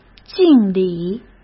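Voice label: "敬礼", Mandarin Chinese; hsk; chinese-flashcards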